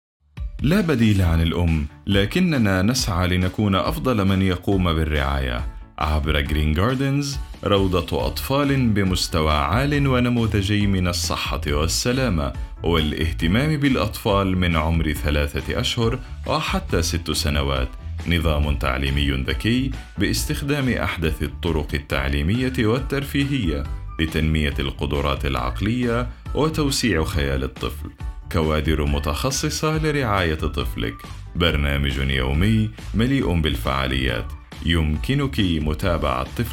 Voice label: male arabic kindergarten commercial